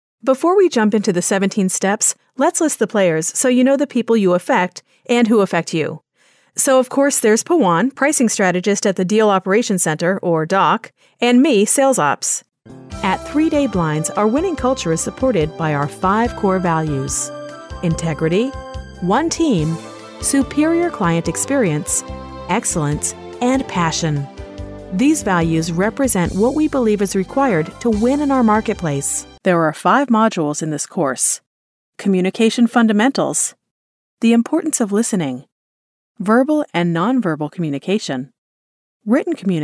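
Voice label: American English elearning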